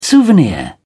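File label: "souvenir", English, British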